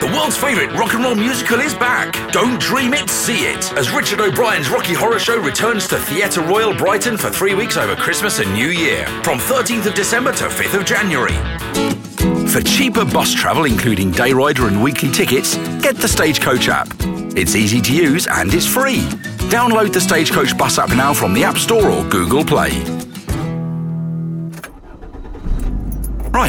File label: Commercial Voice